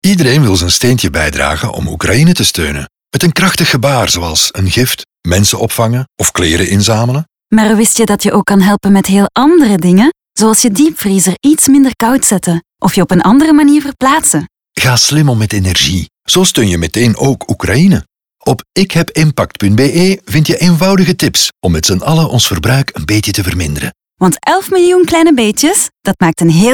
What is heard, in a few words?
Radio NL; Federale Overheid; 30s